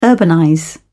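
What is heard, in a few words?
"urbanize", British English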